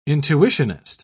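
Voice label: "intuitionist", English, uk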